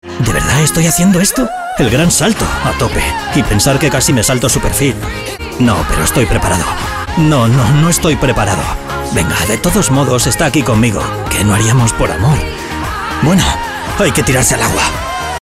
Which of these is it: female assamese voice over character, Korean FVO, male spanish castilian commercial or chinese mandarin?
male spanish castilian commercial